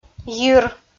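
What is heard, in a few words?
"йир" Komi, female; audio dict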